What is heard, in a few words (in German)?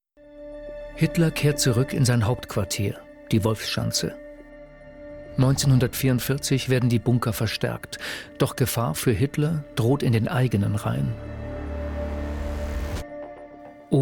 Kommentar, maenner; Hitlers Macht